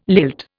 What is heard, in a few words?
"lilt", Indian